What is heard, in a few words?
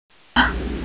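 "huang4", Mandarin Chinese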